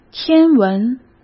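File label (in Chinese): "天文", Mandarin; chinese flashcards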